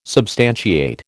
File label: "substantiate", American